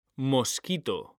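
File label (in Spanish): "mosquito" Spanish, male; mekanta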